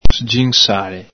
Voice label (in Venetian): "sginsale", Veneto